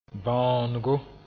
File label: "bãoonego", Mooré